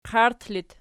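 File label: "qairt" locative, Archi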